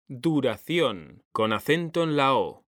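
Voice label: "DURACIÓN", Spanish; mekanta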